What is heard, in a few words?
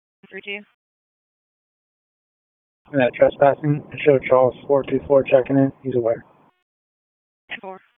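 Raleigh Police Southeast District Dispatch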